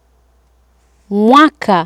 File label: "mwaka", Swahili